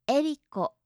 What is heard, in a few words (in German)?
"eriko", japanische maedchennamen